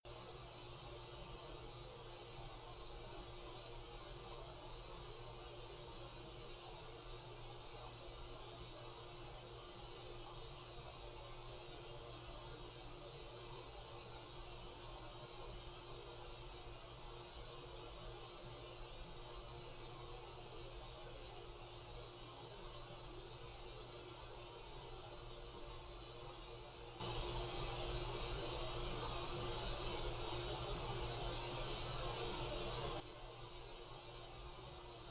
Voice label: long conversation